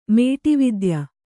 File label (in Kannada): "ಮೇಟಿವಿದ್ಯೆ", Kannada